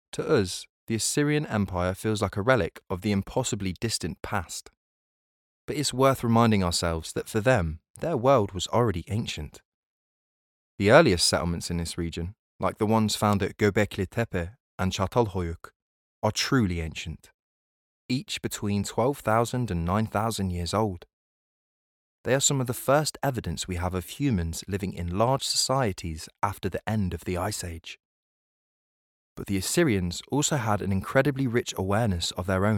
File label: Narration